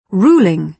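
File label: "ruling", British English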